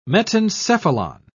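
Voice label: "metencephalon", English, British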